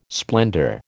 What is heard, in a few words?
"splendour", American